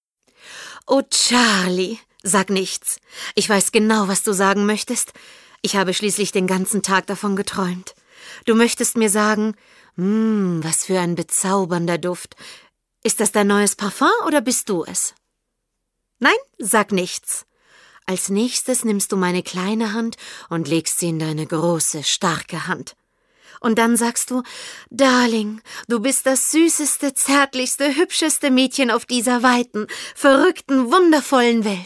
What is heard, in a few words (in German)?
Sprechprobe Hoerspiel